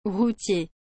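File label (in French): "routier", French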